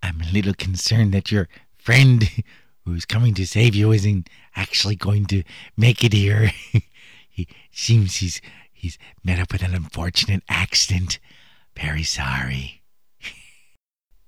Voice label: Creepy bad guy